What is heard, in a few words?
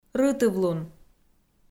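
"рытыв-лун", Komi, female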